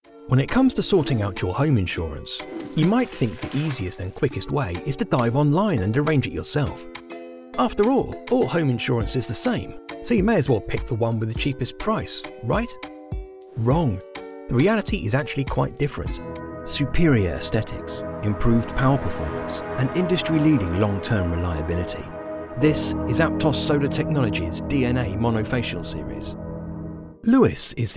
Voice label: english british animation